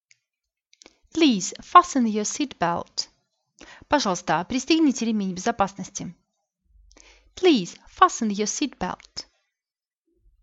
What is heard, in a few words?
in airplane phrase, translation exercises